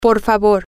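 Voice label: please, Spanish, female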